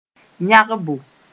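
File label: "yãgbo", Mossi; shining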